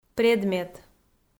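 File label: "предмет", Komi, female; kpv audio dict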